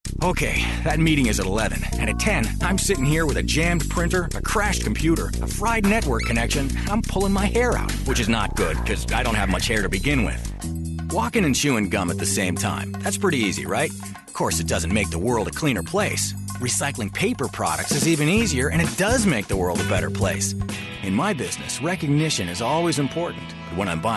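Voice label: Received Pronunciation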